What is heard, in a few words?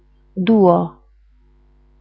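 "duo", Italian, female; Latin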